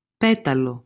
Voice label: "petalo", Modern Greek